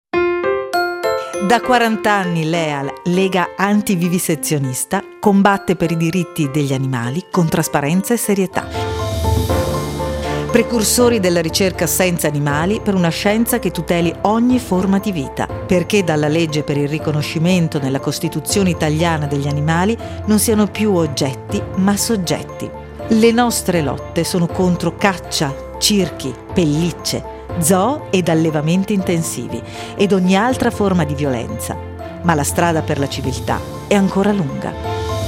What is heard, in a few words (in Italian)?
spot; 5x1000; LEAL